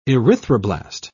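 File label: "erythroblast", British English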